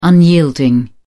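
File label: "unyielding", English, British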